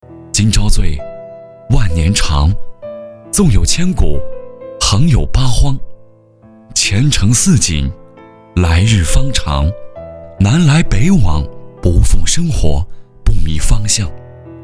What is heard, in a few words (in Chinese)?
男 专题 祝福温暖